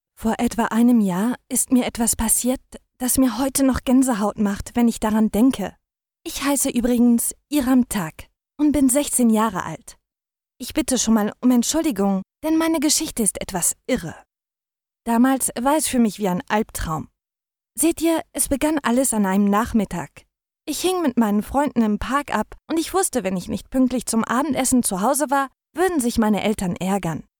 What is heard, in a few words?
GERMAN